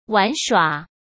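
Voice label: "玩耍", Mandarin Chinese; chinese flashcards; hsk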